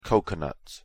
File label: "coconut", English